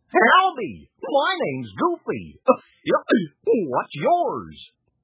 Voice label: talking goofy, toys